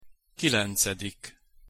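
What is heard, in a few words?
"kilencedik", Hungarian pronunciation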